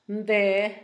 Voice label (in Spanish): aquel, Zapoteco, Asunción Ocotlan; Adverbios; INALI; Haspelmath